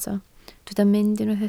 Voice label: "mynd", Welsh